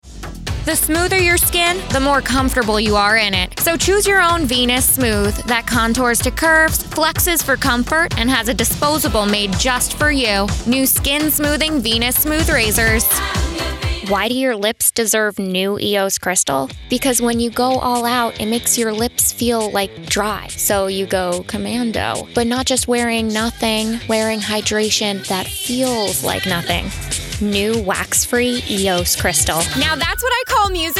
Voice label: commercial